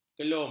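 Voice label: "kelum", Malay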